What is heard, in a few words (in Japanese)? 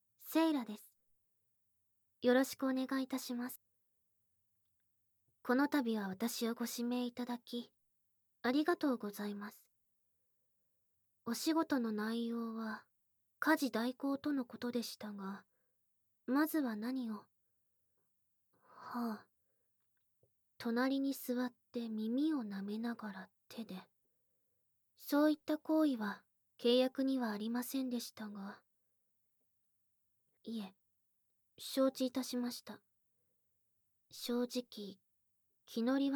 耳舐め、耳元囁き手コキ, ASMR; 耳舐めも手淫もいたします。手当て次第で